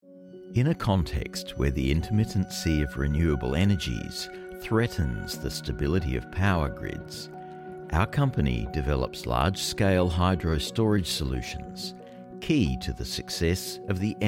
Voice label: Corporate Narration eLearning Professional, Explainer Videos, Deep, Resonant, male; Mining Company